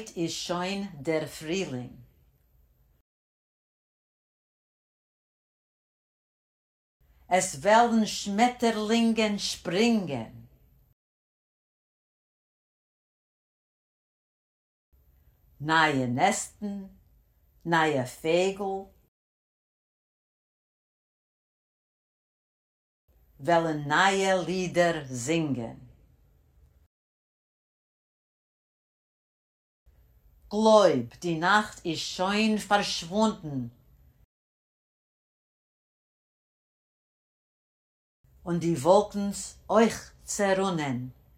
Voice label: Hof Un Gloyb pronunciation drill; Listen and repeat